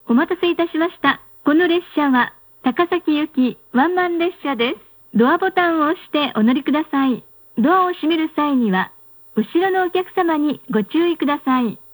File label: kiha110 announce